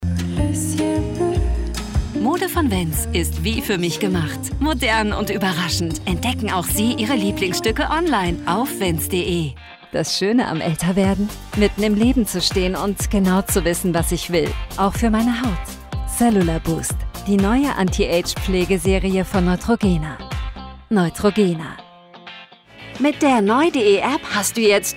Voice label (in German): medley markenwerbung variantenreich